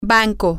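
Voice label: bank, spanish, female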